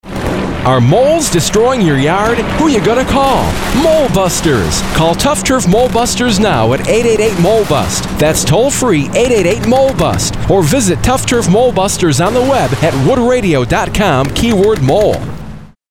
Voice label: Radio ad